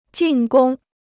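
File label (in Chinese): "进攻" Chinese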